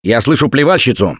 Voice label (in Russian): heard spitter, left4dead2 russian, Survivor Voice; Gambler